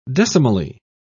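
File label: "decimally", British English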